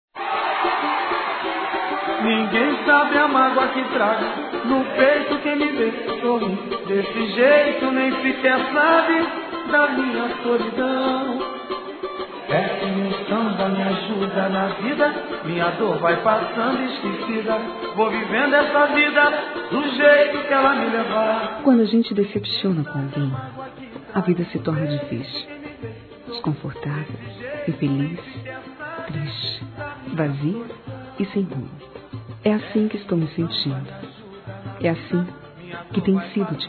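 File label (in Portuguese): Bronca geral female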